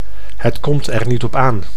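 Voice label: "het komt er niet op aan", Dutch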